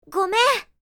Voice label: "Sorry" anime girl character; cartoon